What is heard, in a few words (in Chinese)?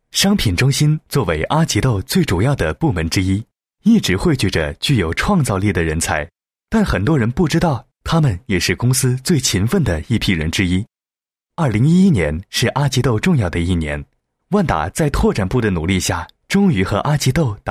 男 浑厚男声 解说; 飞碟说